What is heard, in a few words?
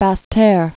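"basseterre", spoken word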